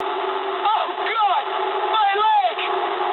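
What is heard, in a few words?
English damaged pilot mood low; War Thunder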